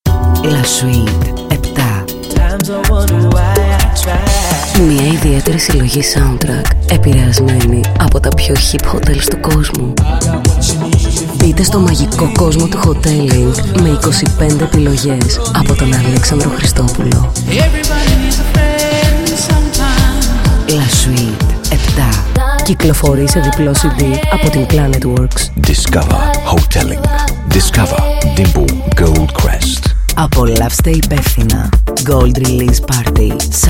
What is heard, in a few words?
Radio Spot; La Suite 7